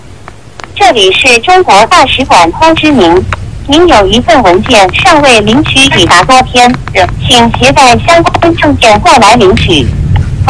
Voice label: chinese voice mail